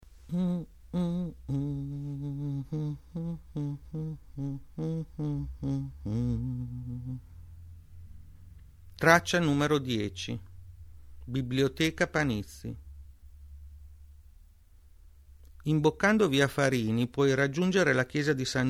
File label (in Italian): Audioguida; Reggio Emilia; Biblioteca Panizzi